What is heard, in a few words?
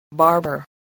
"barber", English